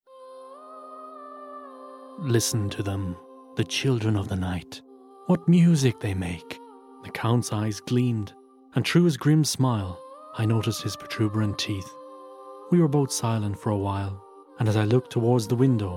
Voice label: Audiobook